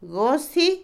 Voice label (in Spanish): Otomí, mujer; puerta; Casa; INALI